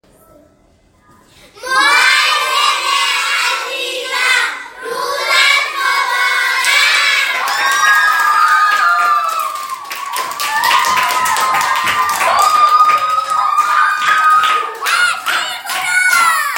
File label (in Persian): صوت دسته جمعی کلاس; معلم عزیزم روزت مبارک کلاس دومی های مری